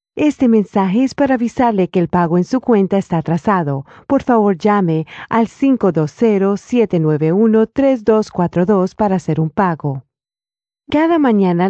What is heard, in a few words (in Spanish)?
Spanish South America, F